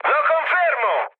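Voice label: Italian player killed enemy mood high, speech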